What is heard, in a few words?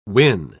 "win", pronounce